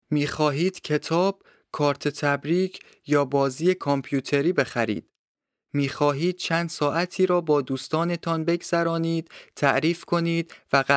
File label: text farsi